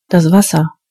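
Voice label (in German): "water", German; gcompris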